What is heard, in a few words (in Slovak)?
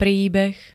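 "príbeh", Slovak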